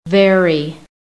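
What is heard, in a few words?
"very", American English; PHONETIC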